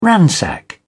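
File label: "ransack", British English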